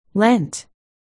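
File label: "lent", spoken word, articulation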